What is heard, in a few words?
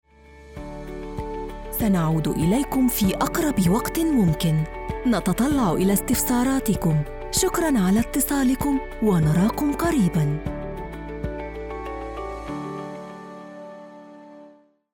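arabic interactive voice response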